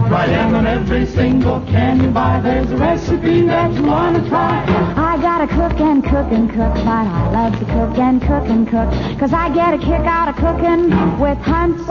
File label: Commercial Tomato Sauce; KHQ Spokane; Hunts